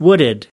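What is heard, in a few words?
"wooded", US English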